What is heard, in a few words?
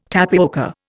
"tapioca", Indian